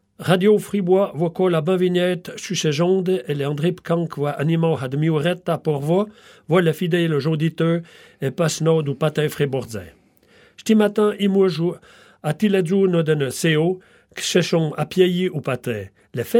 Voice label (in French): Patois